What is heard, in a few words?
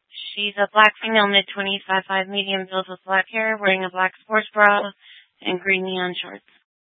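Tempe police department, scanner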